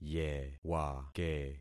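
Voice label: "yewake", concatenated syllables; Affixing experiment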